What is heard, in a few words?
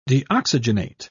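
"deoxygenate", British English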